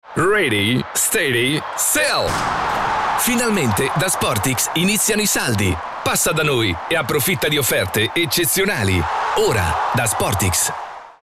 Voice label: Werbung IT CH; flippig frech verspielt

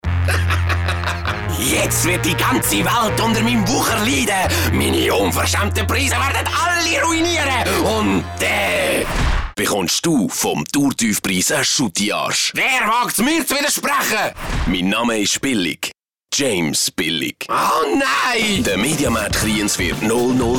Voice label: Hoerspiel; flippig frech verspielt

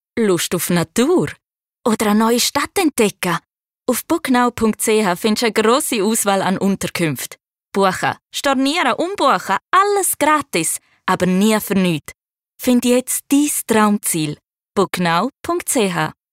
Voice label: Werbung CH GR, hoch

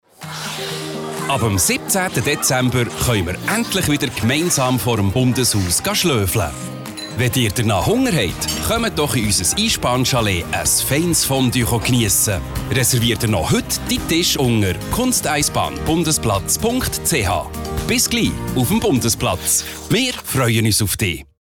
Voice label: Werbung CH BE, flippig frech verspielt

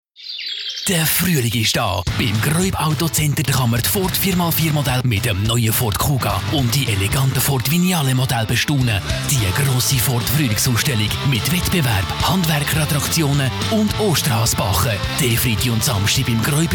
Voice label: Werbung CH AG; flippig frech verspielt

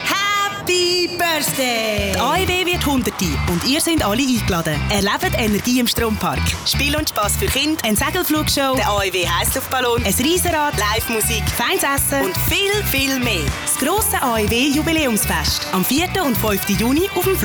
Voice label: Werbung CH AG; flippig frech verspielt

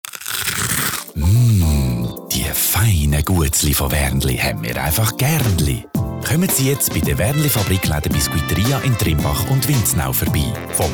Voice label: Werbung CH; schweizerdeutsch bahnhof olten; AG

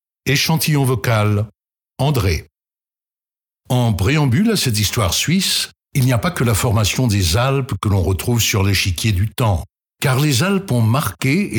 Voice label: OFF FR CH

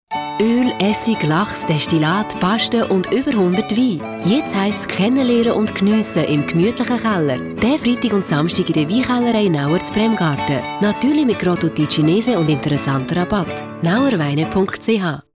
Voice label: Werbung, Schweizerdeutsch

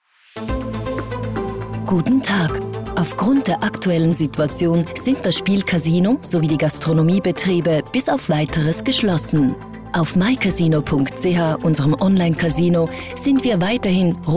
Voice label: Telefonansage CH DE

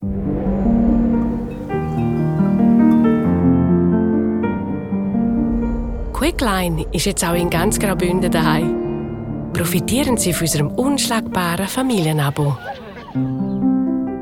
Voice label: Werbung CH GR, hoch

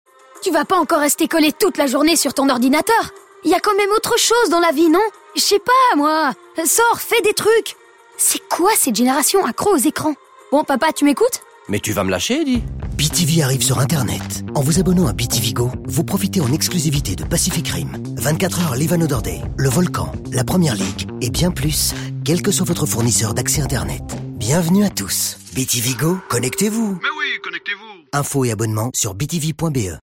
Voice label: Werbung FR CH, flippig frech verspielt

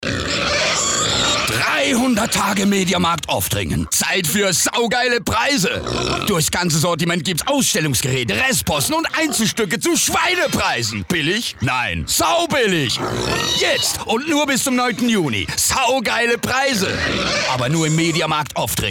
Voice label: Werbung DE CH; hochdeutsch

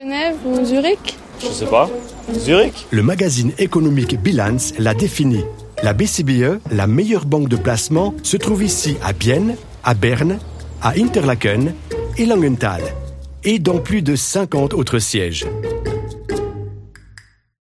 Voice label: Werbung FR CH, flippig frech verspielt

